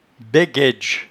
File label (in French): "baigaidge", patois jura